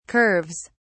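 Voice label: "curves", British English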